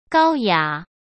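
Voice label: "高雅", Mandarin Chinese; chinese-flashcards; hsk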